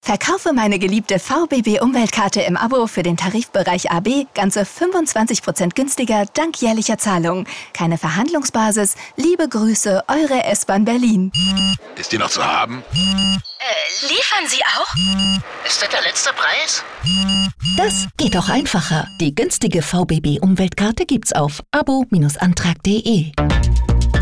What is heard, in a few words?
radio; deutsche bahn